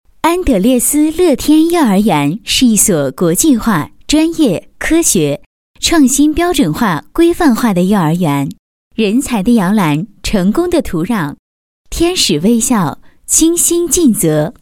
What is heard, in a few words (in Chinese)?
女 学校宣传 幼儿园配音; 安德烈斯